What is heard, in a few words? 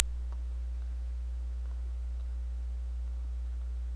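"book", spoken word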